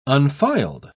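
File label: "unfiled", English, uk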